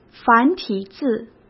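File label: "繁体字", Mandarin Chinese; hsk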